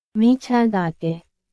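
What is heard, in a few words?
Myanmar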